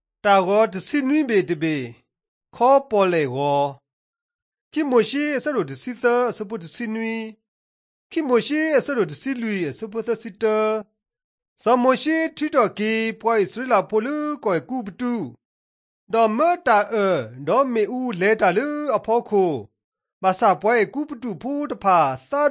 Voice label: drama, karen sgaw; mighty men of god; bible